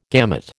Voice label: "gamut", American